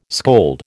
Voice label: "scold", American